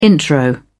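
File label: "intro", English, uk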